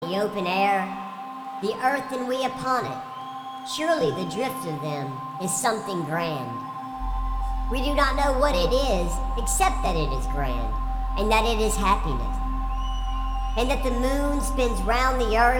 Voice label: Narration